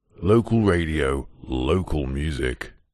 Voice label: multimedia radio male voice says local radio local music processed